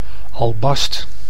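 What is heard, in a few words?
"albast", Dutch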